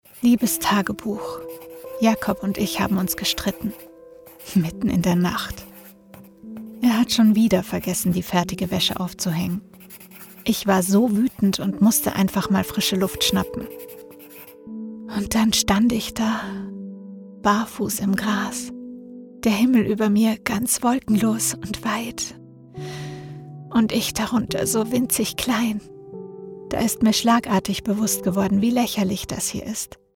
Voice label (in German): Sprachdemo nachdenklich weich